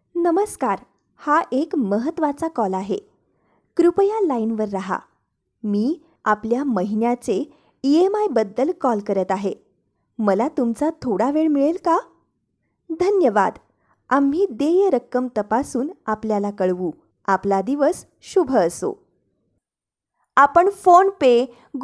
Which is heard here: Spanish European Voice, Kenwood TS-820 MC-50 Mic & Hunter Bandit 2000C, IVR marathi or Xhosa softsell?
IVR marathi